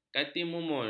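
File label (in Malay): "katimumul", Malay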